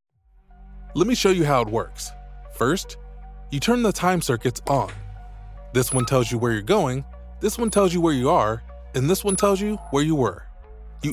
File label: Funny Intro Lesson on Lift, E-Learning, Friendly, conversational, approachable Male Voice; Aviation Instruction Module